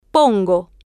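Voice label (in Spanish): "pongo", Spanish, female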